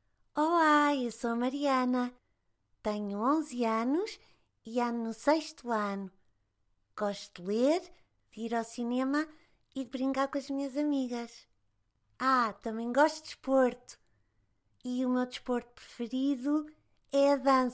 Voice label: Portuguese EU